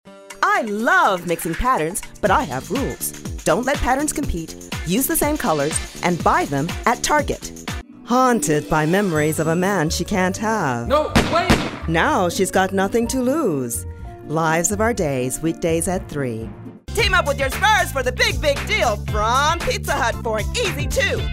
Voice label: English, females